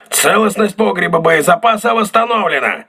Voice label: voice message ammunition storage repaired, crew ships russian